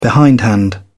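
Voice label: "behindhand", British English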